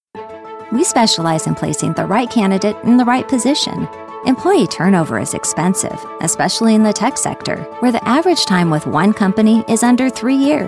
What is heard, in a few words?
English USA